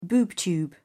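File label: "boob tube", British English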